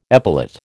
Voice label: "epilate", American